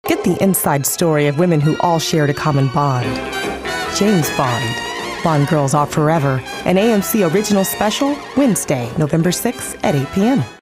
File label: smooth and mellow